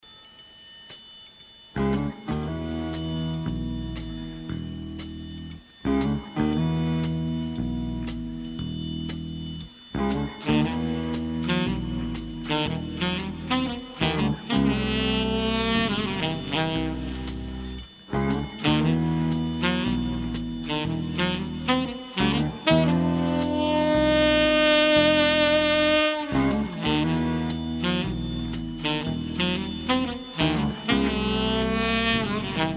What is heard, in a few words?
Segue Station ID Seductive Voice; Middlefingers